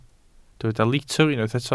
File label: "litr", Welsh